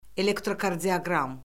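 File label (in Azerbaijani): "elektrokardioqram", Azerbaijani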